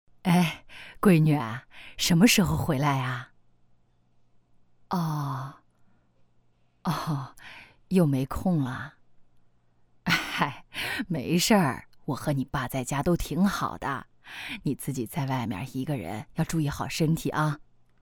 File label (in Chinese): 老人角色讲述